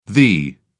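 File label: "thee", British English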